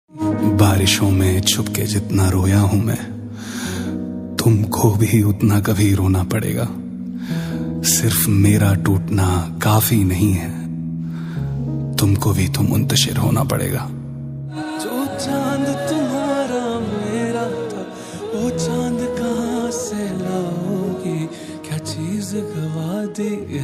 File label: shayari ringtone